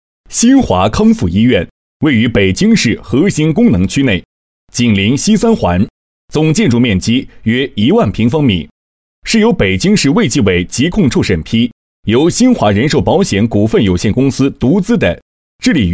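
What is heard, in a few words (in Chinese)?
男62（正式）; 新华康复医院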